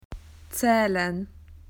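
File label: "to count", Australian English; PictureDictionary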